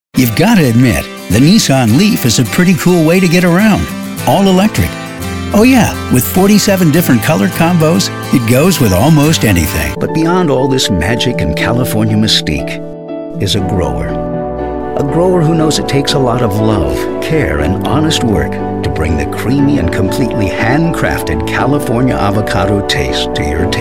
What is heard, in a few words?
Commercial Voice Over